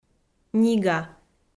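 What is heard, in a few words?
"нига", Komi, female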